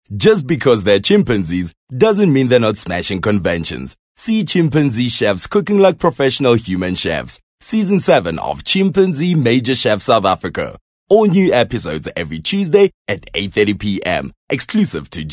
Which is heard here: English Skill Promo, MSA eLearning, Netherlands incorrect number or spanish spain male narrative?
English Skill Promo